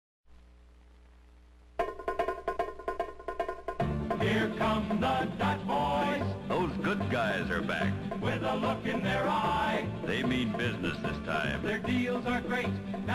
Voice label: Classic 60s animated Commercial; The Dodge Boys; 67 Coronet

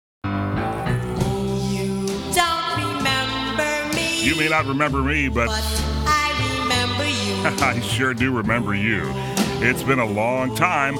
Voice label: Radio Recall Promo

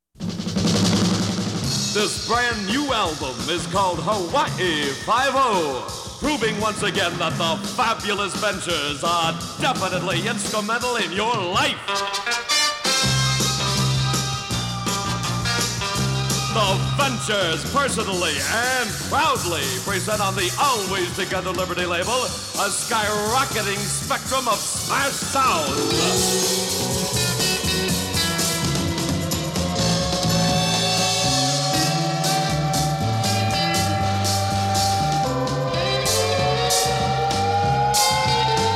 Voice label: Radio Commercials; Hawaii Five-O

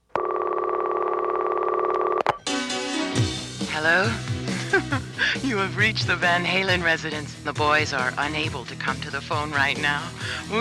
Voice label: Radio Commercials

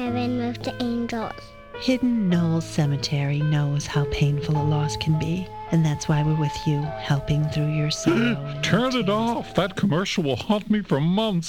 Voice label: Up Close Spot

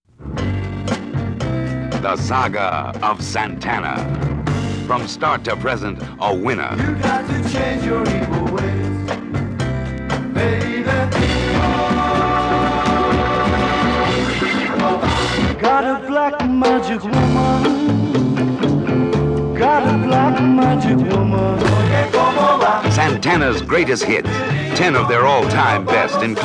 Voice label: Radio Commercials; 1974

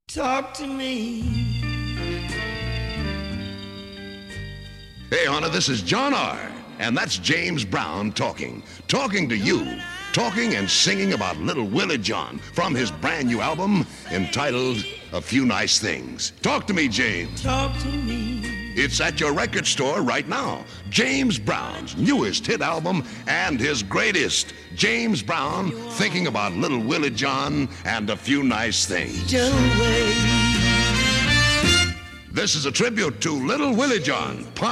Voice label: Radio Commercials; 1968

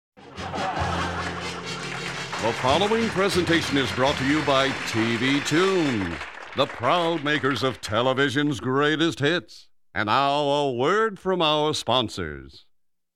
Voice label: Tv Commercials - Intro

